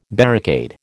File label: "barricade", American